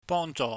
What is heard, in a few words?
"bongjoh", Cantonese